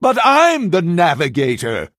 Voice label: Male Human MIRROR START; en